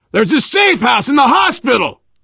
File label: world hospital, player survivor voice biker